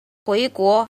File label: "jin", Chinese